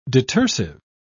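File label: "detersive", British English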